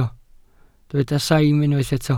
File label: "saim", Welsh